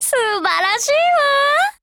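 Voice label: Appreciate, Japanese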